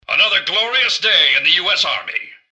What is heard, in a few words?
generic robot alert to normal; npc; mrgutsy